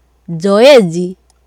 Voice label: "zoezi", swahili